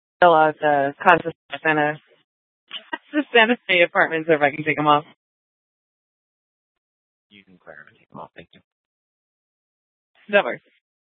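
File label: police dispatch, Scottsdale PD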